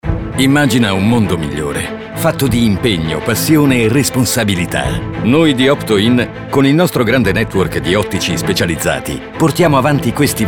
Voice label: Radio inspired institutional; Opto In